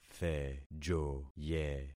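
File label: "thejoye", concatenated syllables; Affixing experiment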